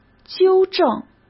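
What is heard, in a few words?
"纠正", Mandarin Chinese; chinese flashcards; hsk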